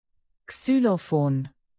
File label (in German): "xylophon", German, native; alphabet